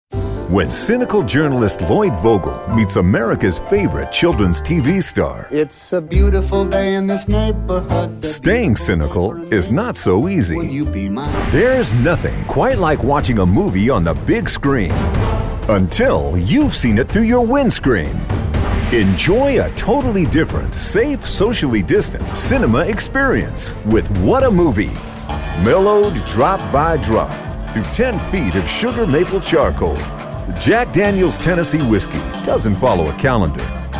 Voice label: Straight Commercials

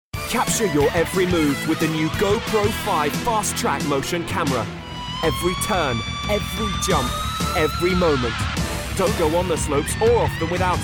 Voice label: Commercials

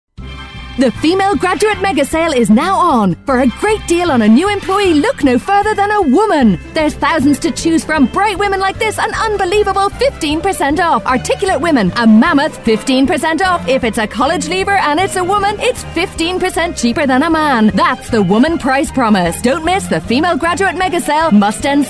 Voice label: Commercial, equal pay

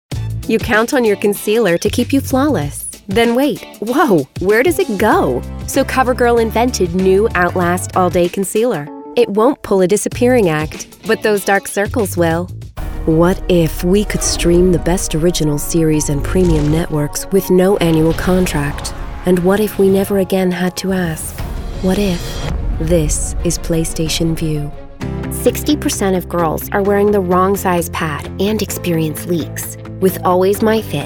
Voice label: Commercials

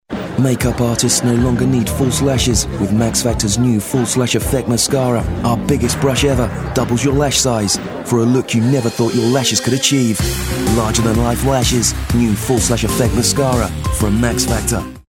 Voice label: Commercial; Max factor

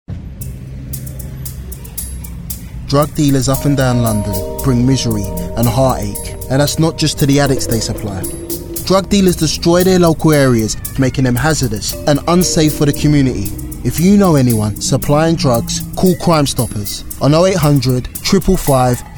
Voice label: Commercial; Met police

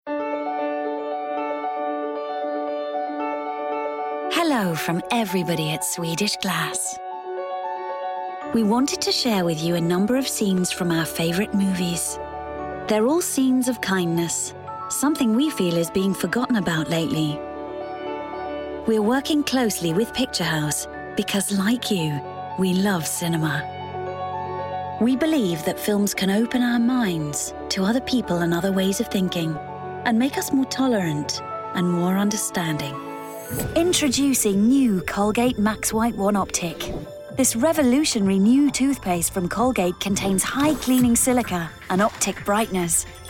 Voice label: Corporate

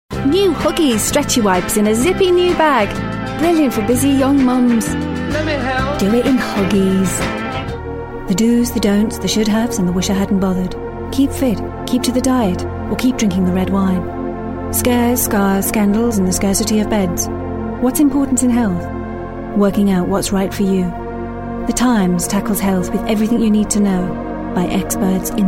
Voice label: Commercial Mix; Vocal Point

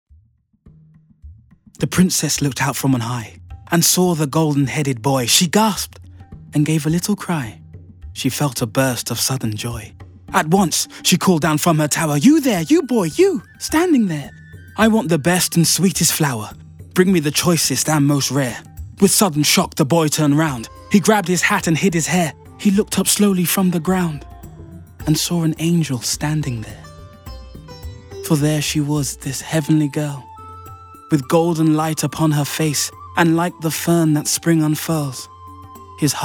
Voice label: Poetry Reading

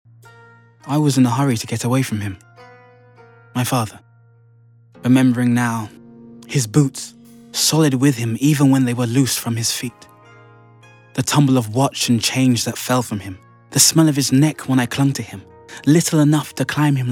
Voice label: Book Reading; Song Of Riots; Vocal Point

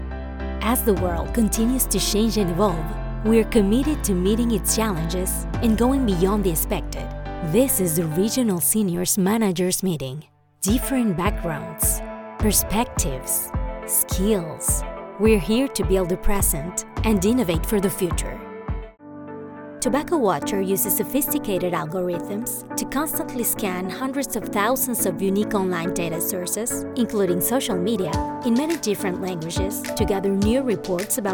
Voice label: English Corporate

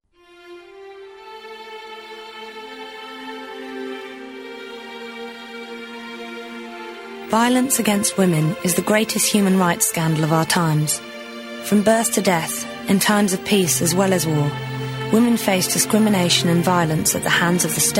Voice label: Commercial; Amnesty; Vocal Point

